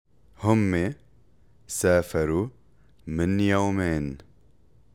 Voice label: Past tense Arabic